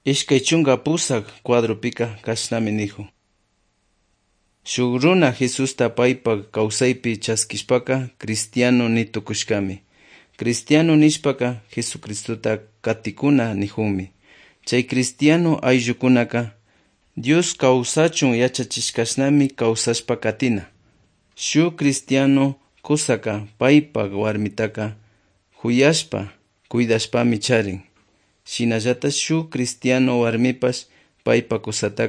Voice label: good news quichua otovalo; quechua; bible